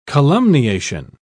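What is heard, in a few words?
"calumniation", British English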